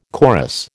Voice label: "chorus", American